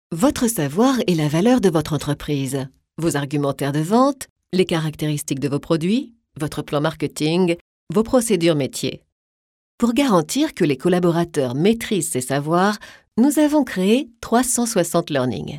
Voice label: eLearning French Female; commercial voiceover